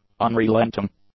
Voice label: "unrelenting", english; adjectives